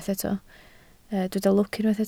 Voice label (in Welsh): "lwc", Welsh